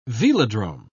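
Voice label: "velodrome", British English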